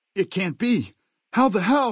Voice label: male adult greeting; Fnv

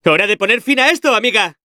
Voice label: female; Sonic Forces

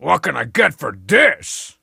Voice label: Gem Strong Sad; SBCG Ep4

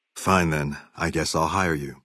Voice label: male adult gambler asks; FNV

